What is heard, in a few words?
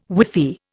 "whiffy", Indian